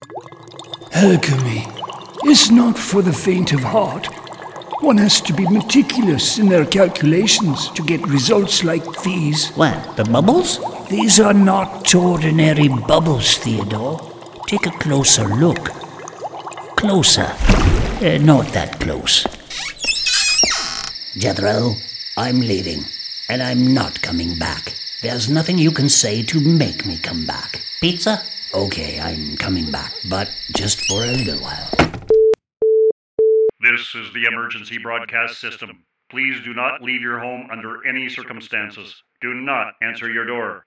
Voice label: Animation